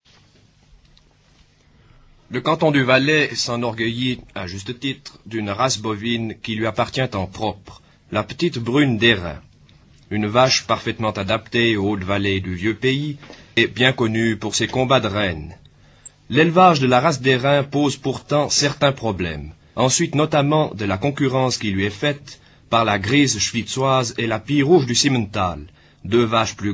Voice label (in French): patois d Heremence; patois valaisans; La race d'Herens